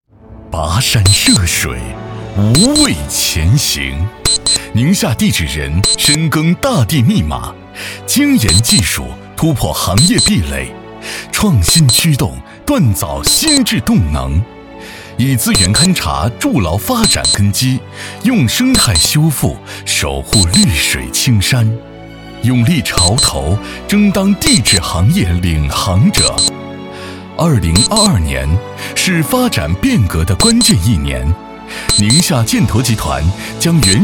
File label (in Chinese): 男 传统宣传片